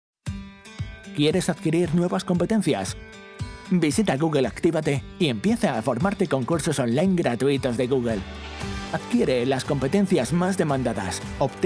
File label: Spanish Castilian promos